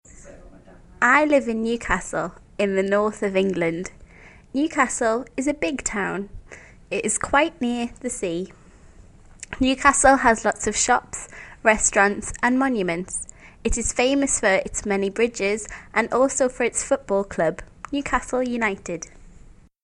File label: GB Newcastle